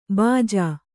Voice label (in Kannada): "ಭೋಜ", Kannada